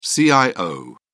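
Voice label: "cio", British English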